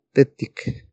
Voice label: "tetik", Náhuatl; duro; hard

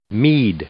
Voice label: "mead", English; i-ɪ; noun

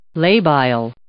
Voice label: "labile", English

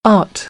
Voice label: "aught", American; English; ɑː - ɔː

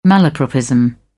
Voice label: "malapropism", British; AmericanBritish

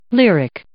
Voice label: "lyric", English

